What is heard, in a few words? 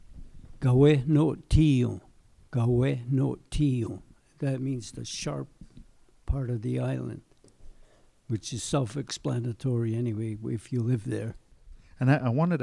Mohawk name for park; Kawenothiion; parcs municipaux